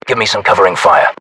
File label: uk order action cover me, battlechatter; english; localized english